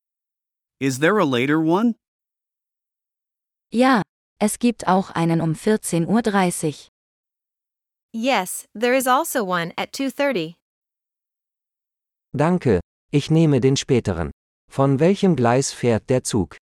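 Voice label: At the Train Station - Dialogue (with translations), German; de-en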